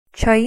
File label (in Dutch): "چأيي", Gilaki; thee-in-andere-talen